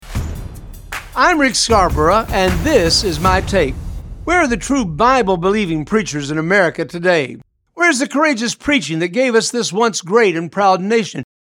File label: Radio Spot